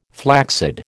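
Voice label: "flaccid", American English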